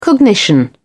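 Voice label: "cognition", British English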